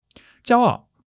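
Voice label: "jiao1 ao4" Chinese; 基础汉语40课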